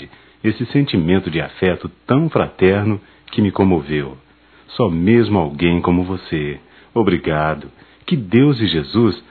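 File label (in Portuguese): Agradecimento mensagem de natal, masculino; Convites e Agradecimentos; Telemensagens 2017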